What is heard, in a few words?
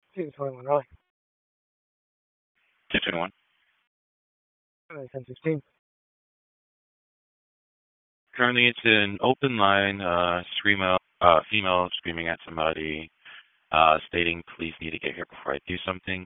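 Police Northeast District Dispatch, Raleigh; wake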